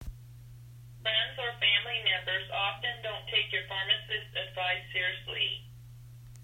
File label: phone